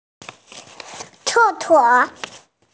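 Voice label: "toilet", hearing impaired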